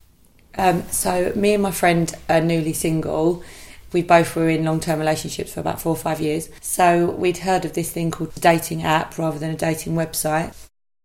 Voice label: EAST LONDON FEMALE aged 29

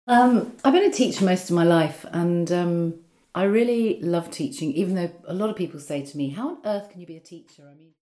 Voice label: NRP FEMALE aged 54

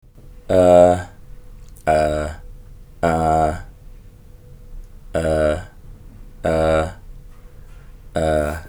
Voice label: HESITATION

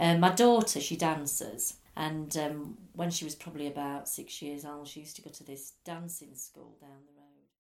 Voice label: SHEFFIELD FEMALE

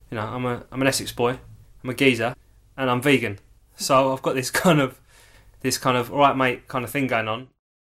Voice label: EAST LONDON MALE aged 33

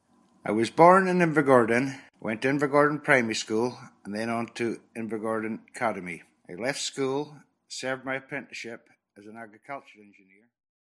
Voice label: INVERGORDON MALE aged 54

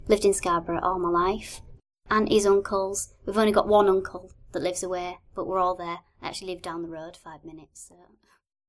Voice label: SCARBOROUGH FEMALE aged 23

